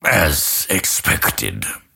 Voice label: lasthit pain; dota; grimstroke